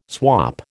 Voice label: "swop", American